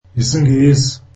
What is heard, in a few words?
zulu English